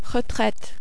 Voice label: "retraite", French

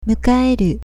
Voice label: "mukaeru", Japanese

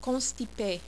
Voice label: "constipe", French

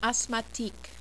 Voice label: "asthmatique", French

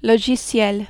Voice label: "logiciel", French

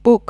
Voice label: "bouc", French

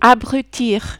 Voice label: "abrutir", French

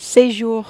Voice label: "sejour", French

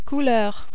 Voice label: "couleurs", French

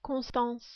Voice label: "Constance", French

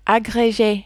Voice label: "agreger", French

